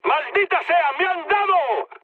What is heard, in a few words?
aircraft destroyed mood med; speech; War Thunder